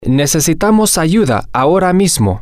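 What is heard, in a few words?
we need help right now, spanish, male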